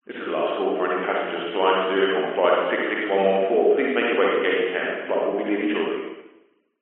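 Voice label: public places airport announcement male english last call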